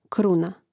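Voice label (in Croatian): "kruna", Croatian; Mrežnik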